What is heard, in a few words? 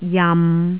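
"jam6", Jyutping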